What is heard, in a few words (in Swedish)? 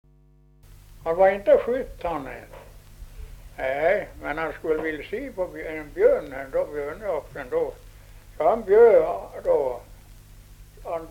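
Ovansjö Gästrikland, dialekter; Folkminnen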